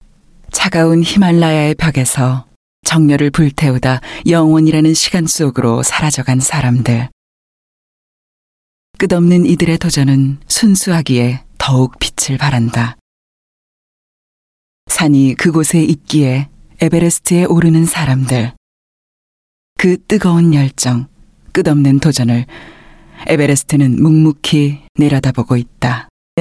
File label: Korean